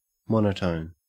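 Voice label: "monotone", Australian English; pronunciations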